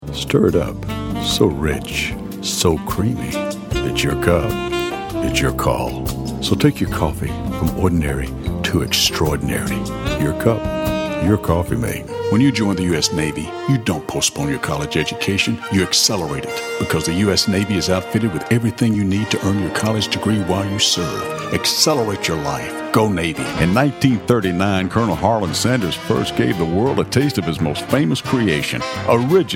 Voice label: Commercial